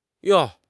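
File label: "yong4", Chinese; HSK3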